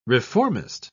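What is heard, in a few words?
"reformist", English, uk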